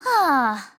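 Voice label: LOWMOOD, Japanese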